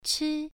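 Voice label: "chi" Mandarin, first tone, pronunciation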